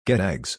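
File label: get eggs, English pronunciation; gét égz; 卵(複数)を手に入れて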